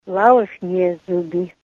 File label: false teeth, Ninilchik Russian